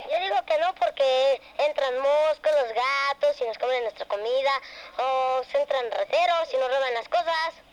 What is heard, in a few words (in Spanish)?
mexicano niños; Niño de la Colonia de Tulpetlac – Buenos Aires – México, D.F., 9 a 10 años, clase social baja